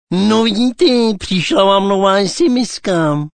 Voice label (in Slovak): sms hlásky cz